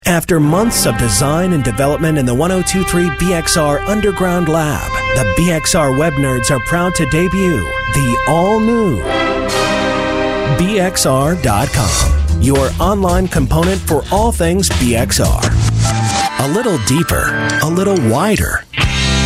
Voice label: Voice ADULT ALT